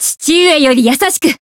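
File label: Voice growth, Japanese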